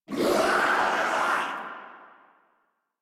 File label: Death Sniper, en